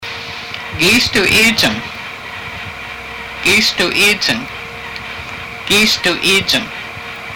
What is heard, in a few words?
who is it, haida language; Gíist uu íijang?